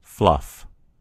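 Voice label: "fluff", American English; gcompris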